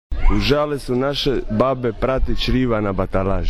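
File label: "criva", Croatian dialect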